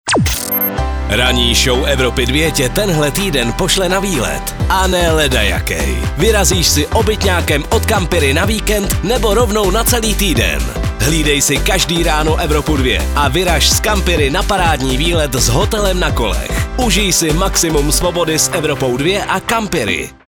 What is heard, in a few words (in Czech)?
liner soutez; evropa2; campiri